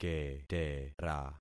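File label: "ketera", concatenated syllables; Affixing experiment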